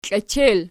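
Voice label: "klechel" nominative, Archi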